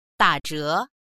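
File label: "da3zhe2", Mandarin Chinese; dǎzhé; 打折; 割引する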